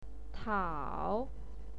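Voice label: "tiao", Mandarin Chinese, third tone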